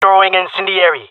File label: throwing fire, jungle male; vo; agents; Source Model Packs; Counter Strike Model Pack; CS2